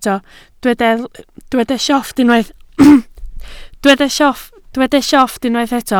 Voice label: "llofft", Welsh

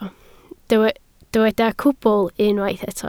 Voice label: "cwbl", Welsh

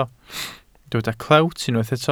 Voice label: "clewt" Welsh

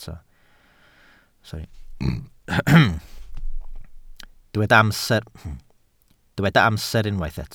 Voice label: "amser", Welsh

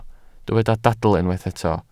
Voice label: "dadl" Welsh

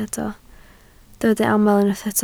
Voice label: "aml", Welsh

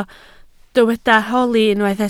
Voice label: "holi", Welsh

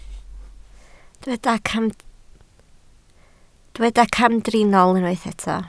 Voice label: "camdriniol", Welsh

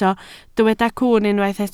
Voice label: "cwwn", Welsh

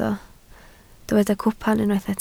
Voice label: "cwpan", Welsh

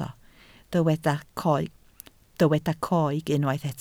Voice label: "coeg", Welsh

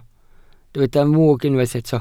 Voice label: "mwg", Welsh

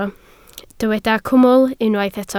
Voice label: "cwmwl", Welsh

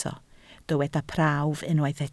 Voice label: "prawf" Welsh

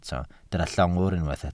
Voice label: "llongwr", Welsh

